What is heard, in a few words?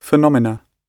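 "phenomena", British English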